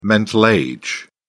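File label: "mental age", British English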